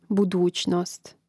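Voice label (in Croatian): "buducnost", Hrvatski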